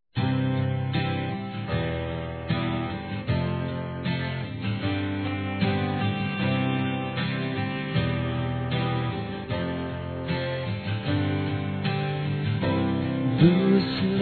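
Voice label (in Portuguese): ROMANTICA, Telemensagens, Românticas masculino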